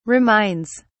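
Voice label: "reminds", British English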